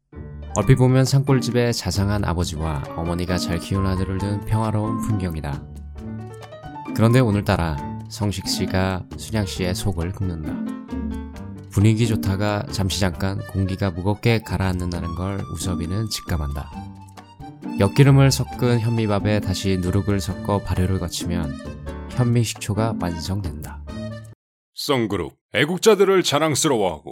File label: Korean